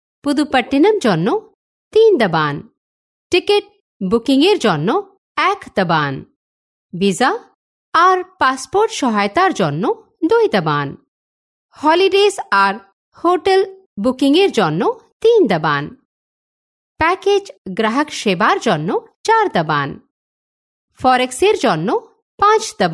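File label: Bengali, female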